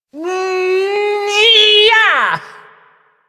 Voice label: Ability Throw, Barks; Warframe; Corpus Lich; Persona A